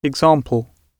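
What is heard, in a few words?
"example", British English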